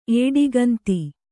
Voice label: "ಏಡಿಗಂತಿ", kannada